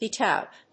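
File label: "beat out", English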